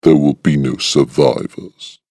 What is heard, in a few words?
Evil Voice No Survivors; Recorded Voice